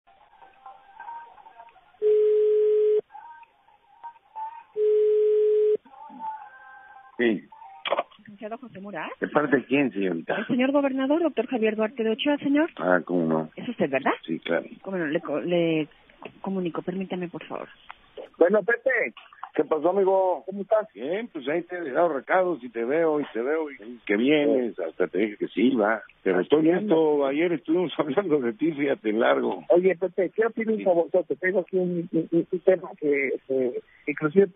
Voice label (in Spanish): conversacion; javier duarte; jose murat; oaxaca; robo al erario publico